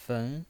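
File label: "fen", Mandarin Chinese, second tone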